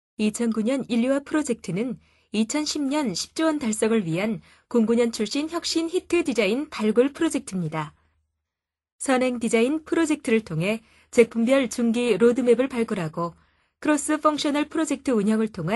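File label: Korean, female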